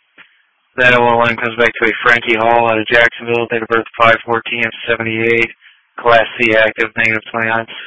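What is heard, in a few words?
Police Patrol Dispatch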